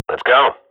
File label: radio bot start, Counter-Strike swat; Global Offensive; player vo